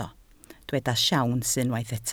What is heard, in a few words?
"siawns", Welsh